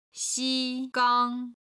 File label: "xi1 gang1", Chinese